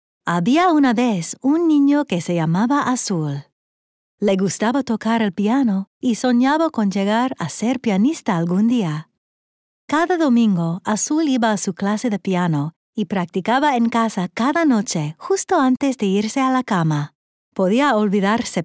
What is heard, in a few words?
Spanish reads